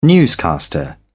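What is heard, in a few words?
"newscaster", British English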